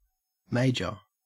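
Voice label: "major", Australian English; pronunciations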